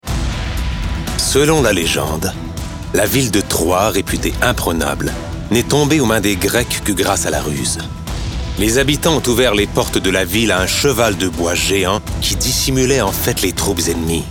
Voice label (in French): narration; Le Cheval de Troie